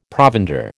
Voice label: "provender", American

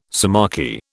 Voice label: "sciamachy", British

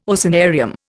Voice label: "oceanarium", Indian

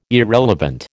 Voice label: "irrelevant", American

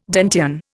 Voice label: "Dantean", Indian

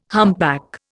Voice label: "humpback", Indian

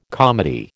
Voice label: "comedy", American

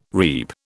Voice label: "reap", British

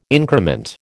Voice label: "increment", American

